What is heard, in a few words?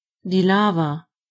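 lava, German voices words; gcompris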